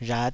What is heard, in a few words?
"rhat5", taiwanese